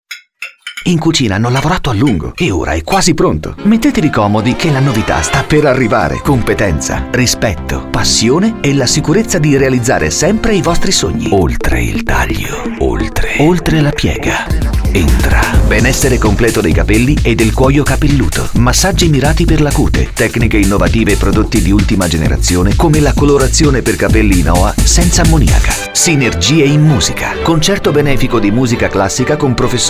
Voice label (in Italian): speaker maschile